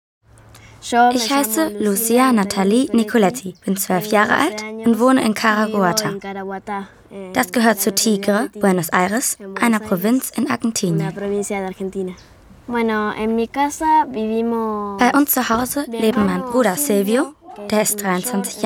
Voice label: VoiceOver